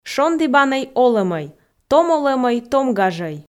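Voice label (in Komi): "шондібанӧй олӧмӧй том олӧмӧй том гажӧй", Komi, female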